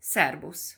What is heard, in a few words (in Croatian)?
mađarski; POP UP Zagreb